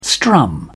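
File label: "strum", British English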